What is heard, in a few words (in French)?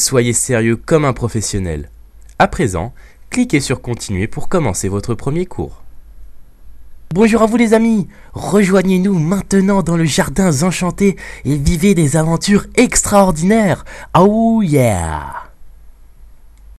voix off; bardella